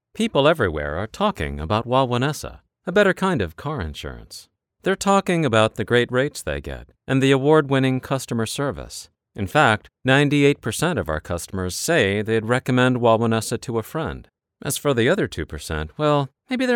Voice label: comm surfing; Wawanesa; matter-of-fact